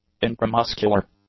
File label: "intramuscular", english; adjectives